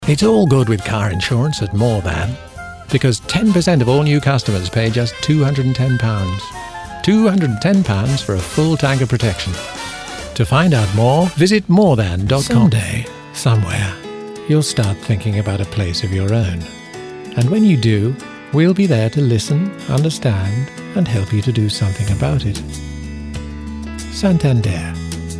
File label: commercial